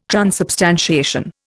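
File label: "transubstantiation", Indian English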